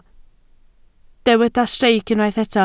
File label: "streic", welsh